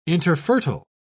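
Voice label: "interfertile", English, British English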